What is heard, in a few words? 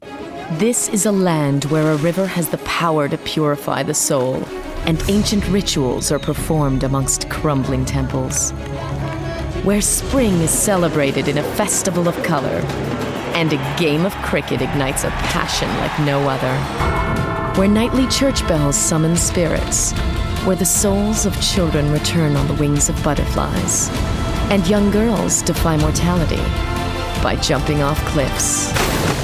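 American English, Narrative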